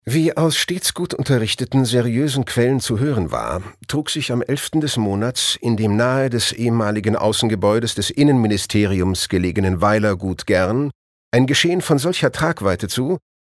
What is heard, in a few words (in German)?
Kommentar Dynamisch